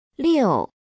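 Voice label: "liu", Chinese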